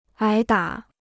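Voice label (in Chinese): "挨打" Chinese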